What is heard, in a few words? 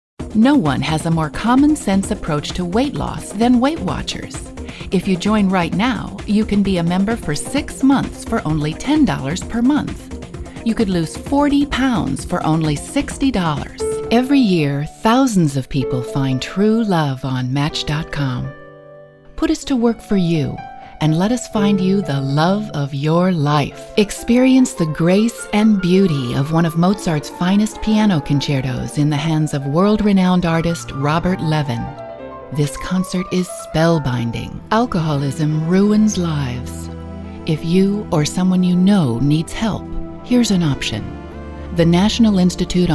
Commercial